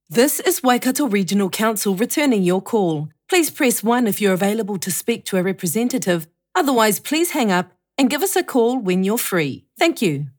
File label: Phone; Waikato Regional Council